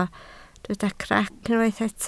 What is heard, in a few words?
"crac", Welsh